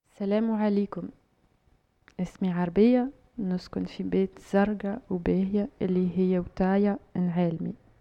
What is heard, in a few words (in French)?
arabe